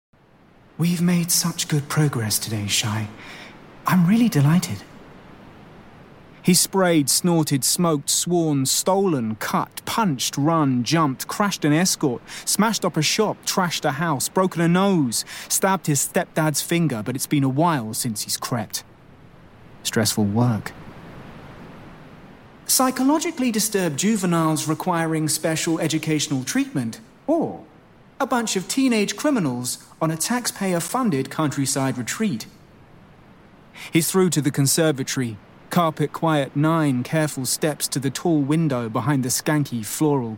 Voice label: NARRATION SHY